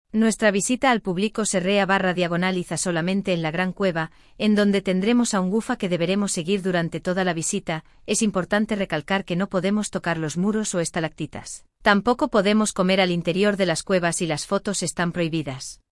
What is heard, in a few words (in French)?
regles entree en espagnol